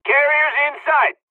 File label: dialogs korean; us midway; War Thunder